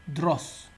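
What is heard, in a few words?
"dross", Nigerian Pidgin, male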